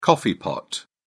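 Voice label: "coffee pot", English, British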